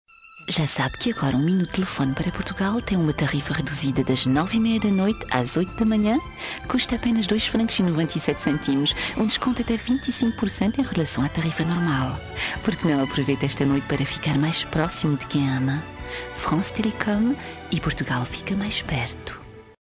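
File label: portuguese female voice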